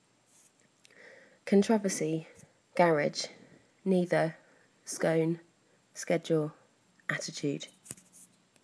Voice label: bournemouth uk female